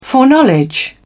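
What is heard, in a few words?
"foreknowledge", British English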